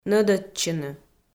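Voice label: "нӧдӧдчыны", Komi, female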